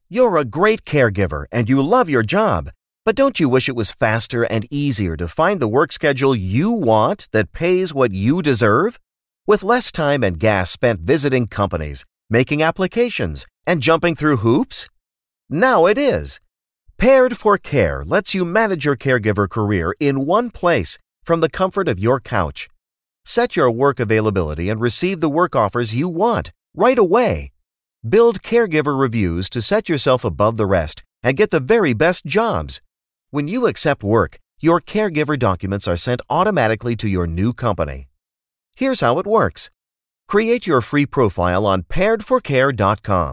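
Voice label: American, male; lektoring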